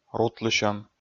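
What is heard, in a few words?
"рутлысьӧм", Komi, male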